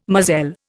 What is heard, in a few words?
"moselle", Indian